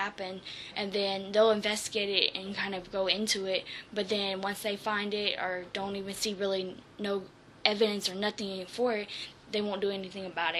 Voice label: girls voices